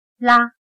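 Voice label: "啦" Chinese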